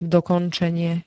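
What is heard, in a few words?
"dokončenie", Slovak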